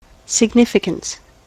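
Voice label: "significance", US English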